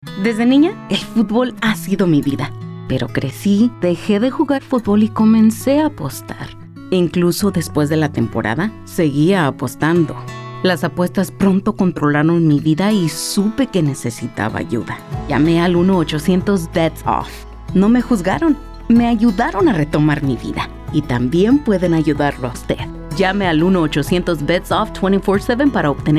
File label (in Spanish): Female, Spanish; 30-sec; Over45